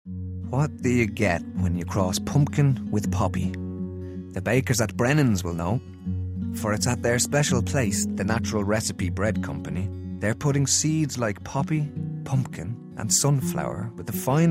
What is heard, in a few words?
Commercial Reel